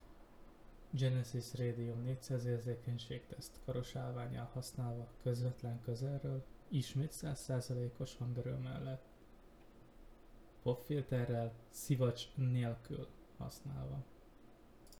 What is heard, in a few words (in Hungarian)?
Radium 400 100 szivacs nelkul, 100% hangerő (érzékenység), szivacs nélkül, mikrofon; Genesis Radium 400